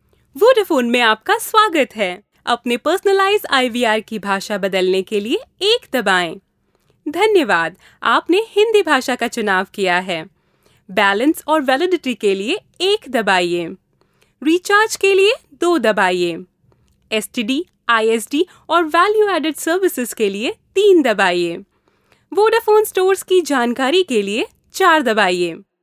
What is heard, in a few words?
Hindi IVR